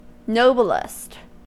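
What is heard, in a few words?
"noblest", US English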